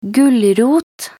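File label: "gulrot", Norwegian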